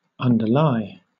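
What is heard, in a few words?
"underlie", British English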